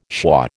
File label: "schwa", American